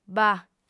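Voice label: "bah", lingua sarda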